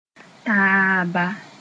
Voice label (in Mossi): "taaba", Moore; together